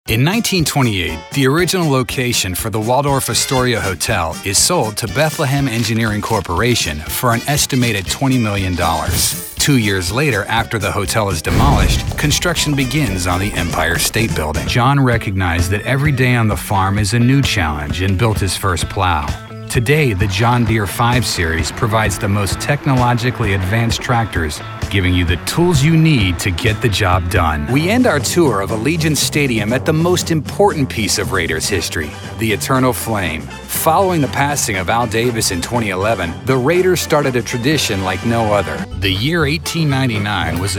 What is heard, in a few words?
30s 40s Male Narration